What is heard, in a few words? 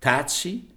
"taxi", es